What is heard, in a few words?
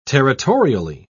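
"territorially", English, British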